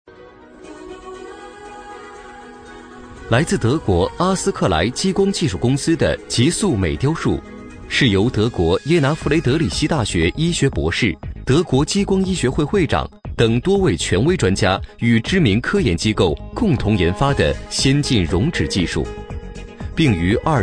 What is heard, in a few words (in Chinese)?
男6号专题 时尚轻松